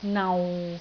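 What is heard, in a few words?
"nau6", Cantonese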